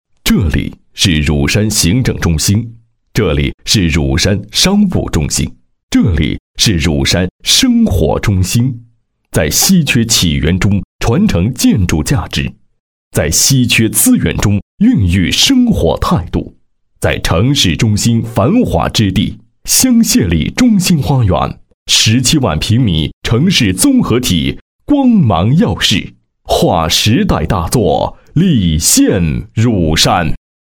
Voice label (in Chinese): 男, 专题; 香榭里样